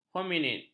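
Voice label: "hominid", Malay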